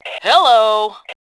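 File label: "hi", voice, female; Tribes2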